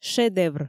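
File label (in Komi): "шедевр", Komi, female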